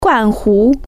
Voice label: "pitcher", Chinese

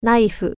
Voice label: knife, japanese

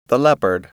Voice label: "leopard", English

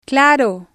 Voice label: "claro", spanish

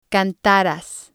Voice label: "cantaras", spanish

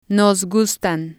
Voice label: "nos gustan", spanish

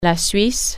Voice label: "switzerland", French

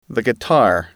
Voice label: "guitar", English

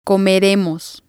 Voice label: "comeremos", Spanish

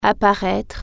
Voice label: "appear", French

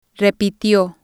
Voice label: "repitio", Spanish

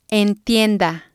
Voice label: "entienda", spanish

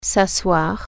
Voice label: "sit down", French

